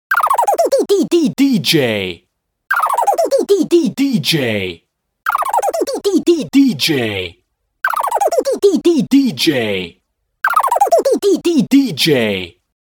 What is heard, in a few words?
Dj Voice Drop; Qubodup